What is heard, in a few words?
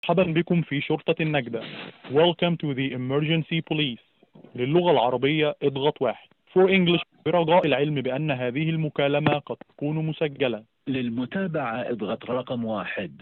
Call recording Emergency number